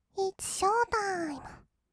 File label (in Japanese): "イッツショータイム", kawaii voice; ASMR; 1200 kawaii voice!!; 音ゲー